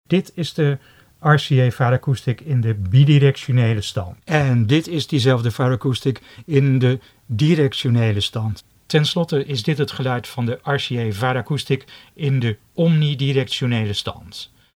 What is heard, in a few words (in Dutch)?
RCA Varacoustic 3 standen klank